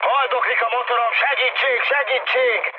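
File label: Hungarian damaged engine mood high, speech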